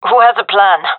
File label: request plan, gendarmerie fem; vo